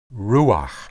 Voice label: ruah, hebrew

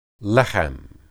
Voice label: "lehem", Hebrew

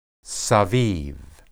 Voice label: "sebib", Hebrew

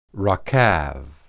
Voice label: "rakab", Hebrew

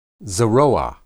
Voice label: "zeroa", Hebrew

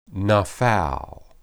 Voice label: "naphal", Hebrew

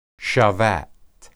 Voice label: "shabat", Hebrew

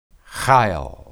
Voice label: "hayil", Hebrew

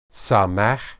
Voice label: "samah", Hebrew

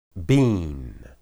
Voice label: bin, hebrew

